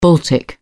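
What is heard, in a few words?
"baltic", British English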